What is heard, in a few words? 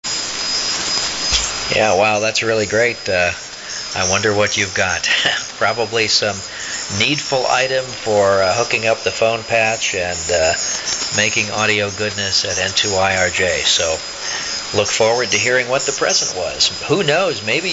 7275 kHz LSB 7500 Hz BW